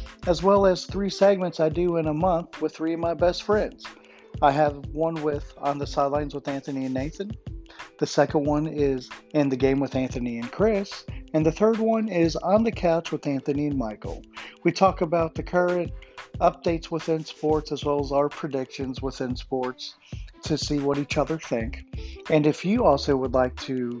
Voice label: trailer, podcast